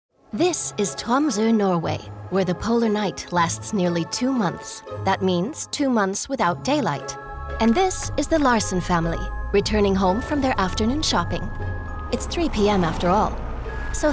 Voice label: Opel englisch; LOFT VOICES

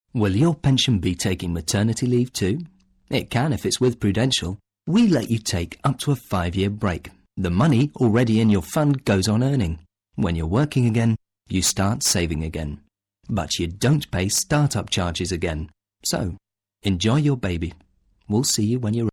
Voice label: uk englisch

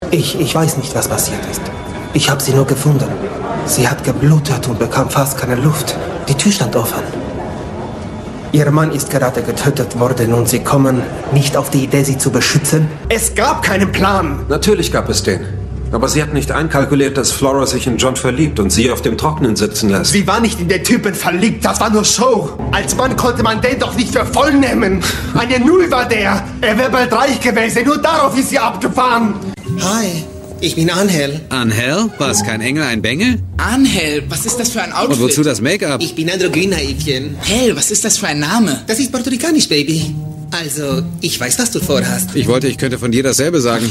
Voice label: spanischer Akzent Comedy mit Akzent

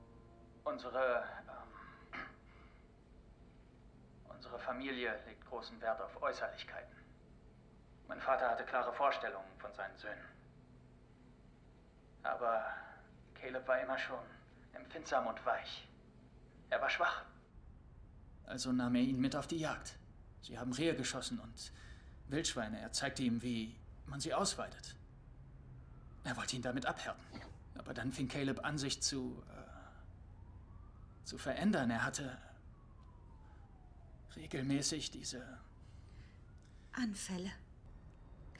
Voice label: warm zärtlich; Coroner

